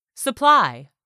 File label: "supply", English; 3000 words